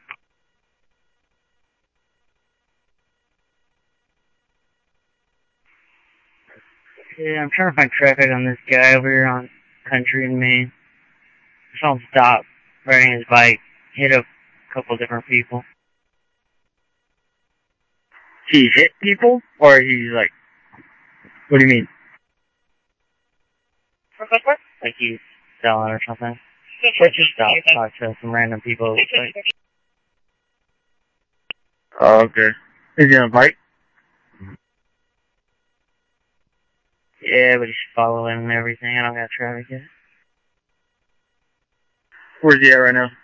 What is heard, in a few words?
Mutual Aid, scanner audio; ThompsonPeakHigh; OCEAN 2 MutualAid-InterS